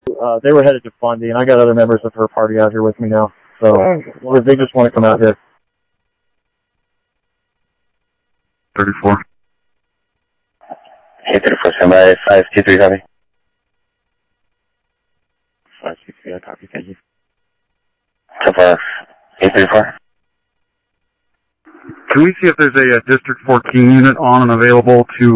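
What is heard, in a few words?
Lakes Sheriff Lake Patrol, scanner audio trunk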